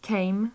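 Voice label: "came", British English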